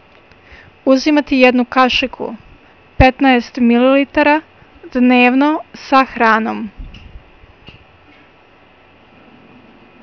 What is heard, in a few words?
Bosnian, Take one tablespoonful (15 mL) once daily with food.; Uzimati jednu kasiku (15 mL) dnevno sa hranom.